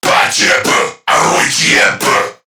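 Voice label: Player Spotted Crewmen, English; Warframe; CorpusCrewmen; CrewmanA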